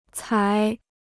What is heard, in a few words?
"采", Chinese